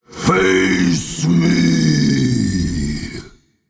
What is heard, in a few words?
Male Vargul Play